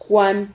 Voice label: "juan", Silabas

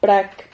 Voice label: "prac", Silabas

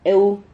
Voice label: "heu", Silabas

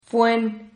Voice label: "fuen", Silabas

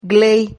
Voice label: "gley", Silabas

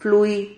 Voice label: "flui", Silabas

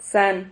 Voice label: "xan", Silabas

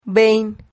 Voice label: "vein", Silabas

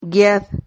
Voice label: "guiad", Silabas

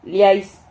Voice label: "liais", Silabas

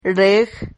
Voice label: "reg", Silabas

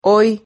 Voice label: "hoy", Silabas

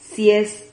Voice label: "cies", Silabas

